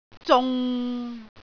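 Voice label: "zung6", Cantonese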